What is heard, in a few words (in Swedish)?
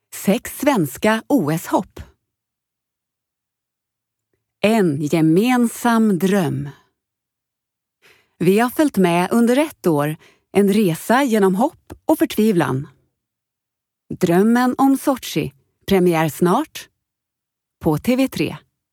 Promo